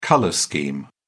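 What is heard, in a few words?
"colour scheme", British English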